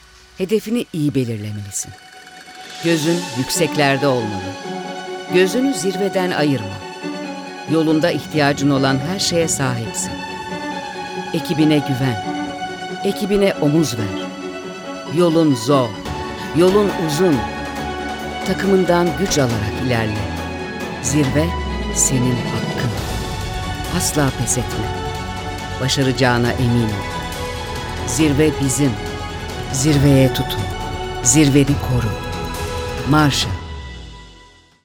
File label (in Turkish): kurumsal imaj